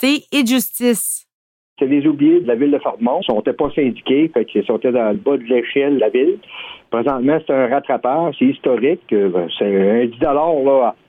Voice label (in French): Reportage Pompiers metallos